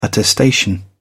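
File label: "attestation", English, British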